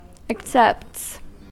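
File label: "accepts", US English; pronunciation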